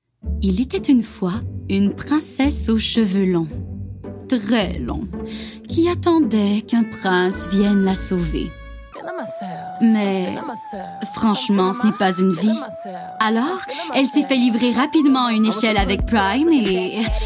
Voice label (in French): annonceur